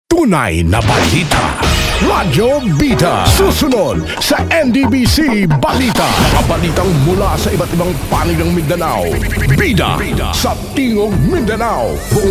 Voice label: Tagalog, AM Announcer